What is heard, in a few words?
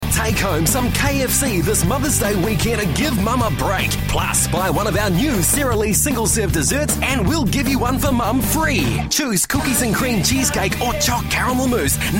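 New Zealand English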